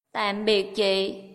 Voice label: goodbye, vietnamese

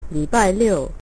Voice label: "saturday", Mandarin

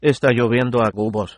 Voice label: rain, es

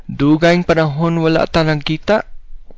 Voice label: long time no see, cebuano

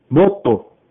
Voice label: "fire" swahili

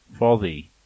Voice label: maybe, manx

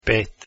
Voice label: 5 croatian

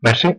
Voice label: thanks, West Flemish

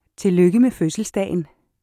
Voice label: birthday, danish

